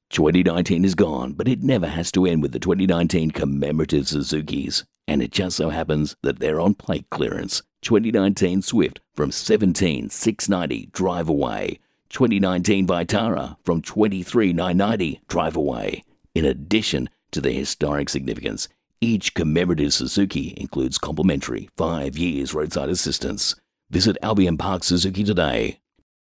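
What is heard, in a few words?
Australian English, male, voice over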